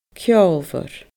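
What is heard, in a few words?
"ceolmhor", Scottish Gaelic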